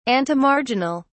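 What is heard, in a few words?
"antemarginal", British English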